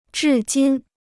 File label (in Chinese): "至今", Chinese